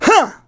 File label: Phrase Male; Vengeance Samples